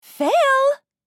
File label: Game over fail female voice; video game